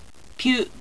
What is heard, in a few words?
"pyu", Japanese